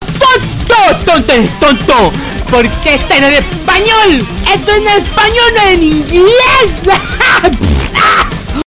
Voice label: spanish